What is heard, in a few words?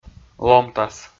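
"ломтас", Komi, male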